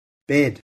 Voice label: "bed", English

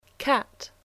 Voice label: "Cat", English spelling

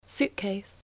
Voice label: "suitcase", English

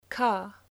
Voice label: "car", English

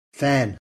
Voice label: "fan", English

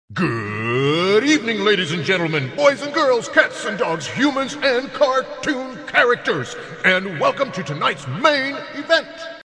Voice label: announcer; Flashpoint; professorgarfield; ReadingRing

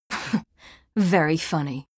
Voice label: Female; Skyrim Special Edition; Dawnguard Beleval

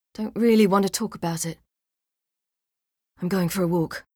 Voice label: Female; Skyrim Special Edition; Dawnguard Beleval

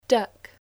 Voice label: "Duck", English

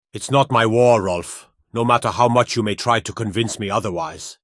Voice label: Dialogue, Male Nord Commander; Skyrim Special Edition; Windhelm Dialogue Expansion

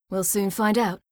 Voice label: Female; Skyrim Special Edition; Dawnguard Beleval

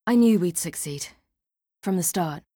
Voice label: Female; Skyrim Special Edition; Dawnguard Beleval

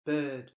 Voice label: "bird", English

